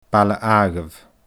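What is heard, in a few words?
"Baile Àdhaimh", Scottish Gaelic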